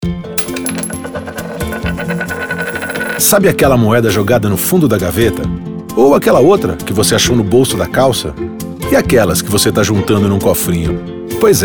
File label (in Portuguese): Spot Metro Moedas